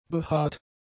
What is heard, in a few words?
"behaart", German; adjectives